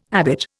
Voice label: "habit", Indian